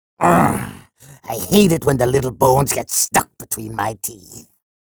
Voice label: menu chatter; sorlag